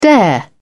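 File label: "dare", British English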